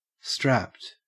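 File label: "strapped", Australian English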